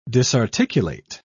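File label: "disarticulate", British English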